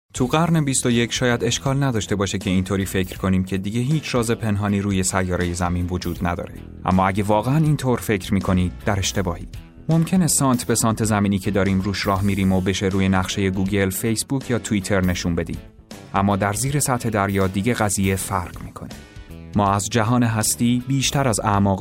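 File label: farsi male narration; voice demos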